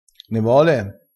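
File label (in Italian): "vole", dialettale, crespinese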